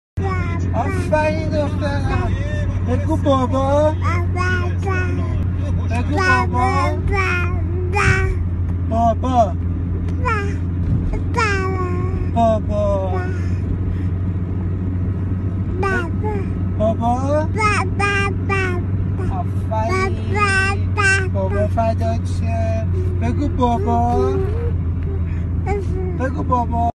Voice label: baby saying daddy